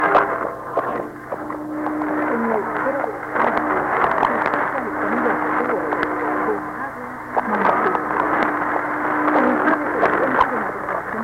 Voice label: radio female ann; cassette